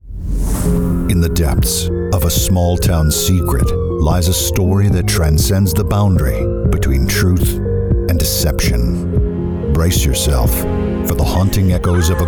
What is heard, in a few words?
Commercial, Professional Male Voice Over; conversational commercials